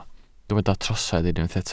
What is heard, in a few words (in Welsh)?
"trosedd", Welsh